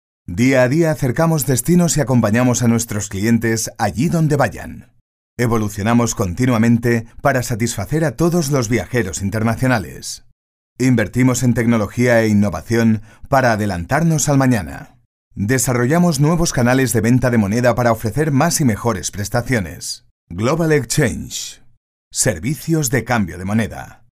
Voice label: spanish m e-learning; voice demos

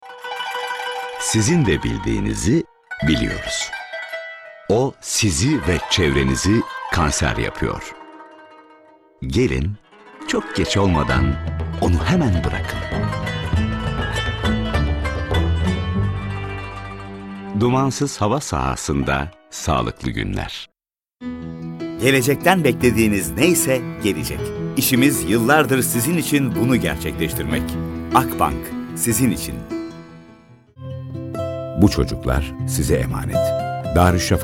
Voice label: turkish m commercial; voice demos

